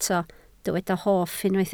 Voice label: "hoff", Welsh